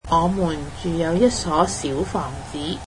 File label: "we live in a small house", Cantonese